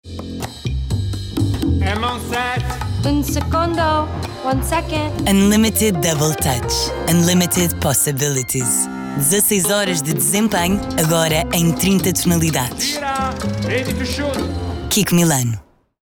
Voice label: Spot